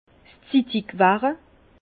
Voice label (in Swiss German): "zittig werre", Bas Rhin; vin; véraison